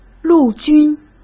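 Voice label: "陆军", Mandarin Chinese; chinese flashcards; hsk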